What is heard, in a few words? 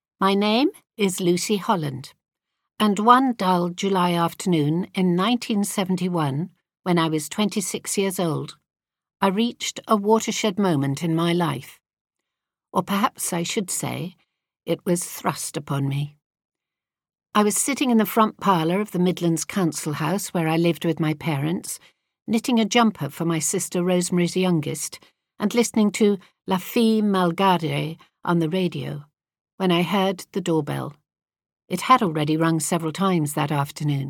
Audiobook, regional accents